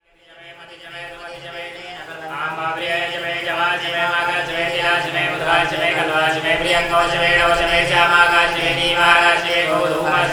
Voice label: Prayer Reading in Shiva Temple Varanasi; Samuel Corwin; Selected Field Recordings from India and Nepal Volume II Religious Music